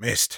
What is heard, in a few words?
negative vocalization, de; "Mist."; Sniper